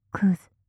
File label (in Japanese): クズ・極近・右